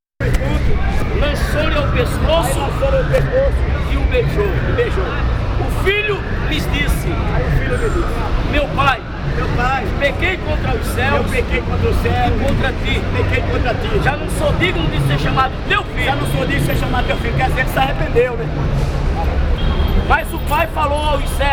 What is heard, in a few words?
Religious Preachers (Various), Brasil; Fieldrecordings